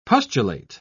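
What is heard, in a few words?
"pustulate", British English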